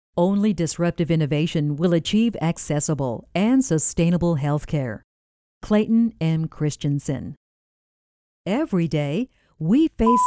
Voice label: narracion ingles USA

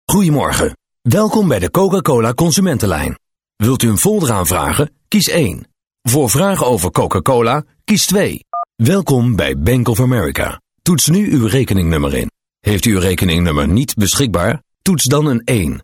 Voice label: comercial holandés

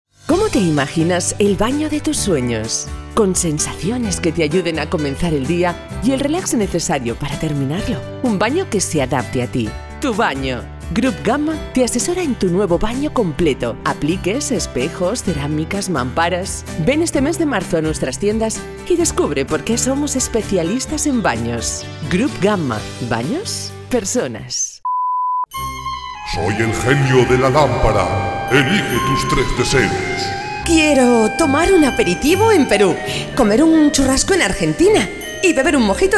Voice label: comercial

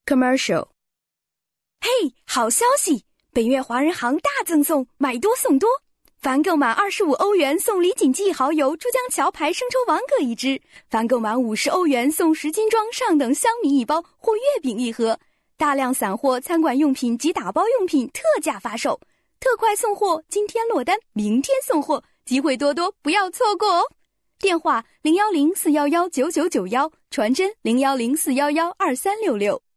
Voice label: comercial chino mandarín

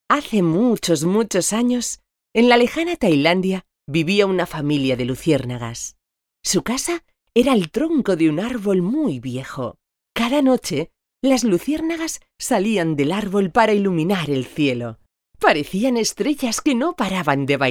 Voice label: narración

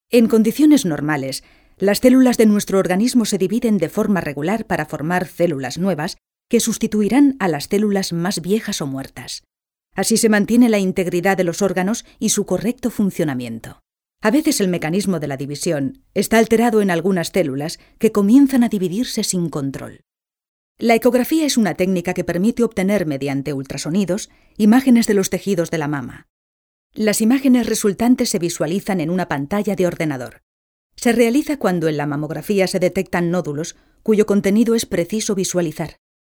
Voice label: narración

